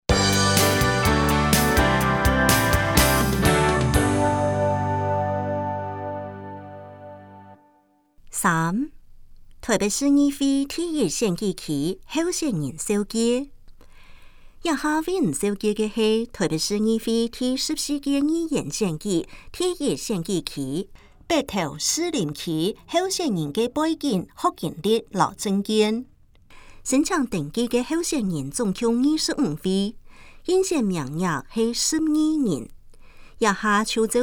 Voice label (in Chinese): 客語; 臺北市; 有聲公報; 市議員; 臺北市議會第14屆議員第1選舉區 (士林、北投區)候選人介紹